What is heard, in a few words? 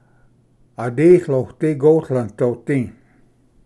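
"aditlodigotlvdodi", Cherokee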